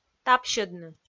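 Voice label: "тапсьӧдны", Komi, female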